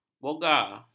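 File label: "boga", Malay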